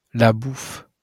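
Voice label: "la bouffe" French; pronunciation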